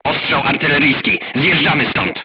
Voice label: voice message art shooting warning, crew tanks polish